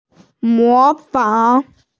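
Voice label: "mo2fang3", Mandarin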